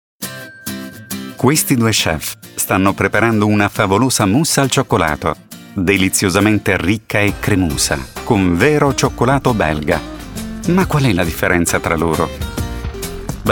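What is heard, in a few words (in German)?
Italian Werbung Freundlich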